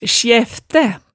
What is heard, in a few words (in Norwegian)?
"sjæftæ", hemsedalsmaalet